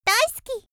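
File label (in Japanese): 少女「大好き」; 元気な少女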